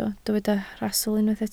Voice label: "rhastl", Welsh